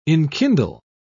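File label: "enkindle", British English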